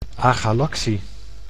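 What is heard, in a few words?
"agalactie", Dutch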